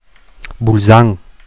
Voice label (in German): "Bulsan" Standard